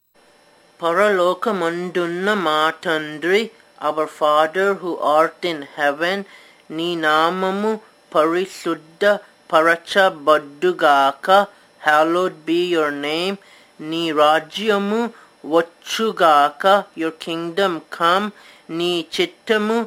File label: Telugu Prayer